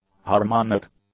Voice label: "harmonic", English; adjectives